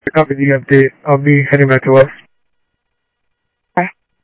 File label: Info Sheriff Common, scanner audio trunk